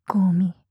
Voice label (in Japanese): "ゴミ" 強く 極近 右, ASMR